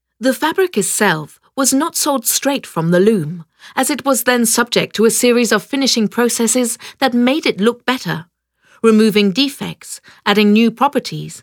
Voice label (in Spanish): audioguia; fabrica textil engl